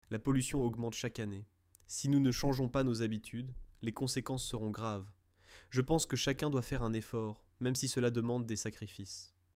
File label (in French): Accent France Environnement